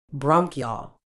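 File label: "bronchiole", British English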